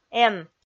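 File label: "эн", Komi, female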